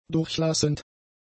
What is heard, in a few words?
"durchlassend", german; adjectives